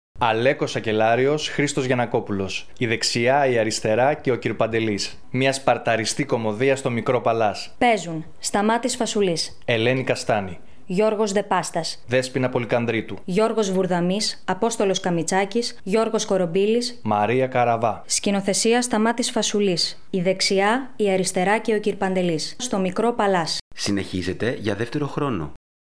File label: RADIO SPOT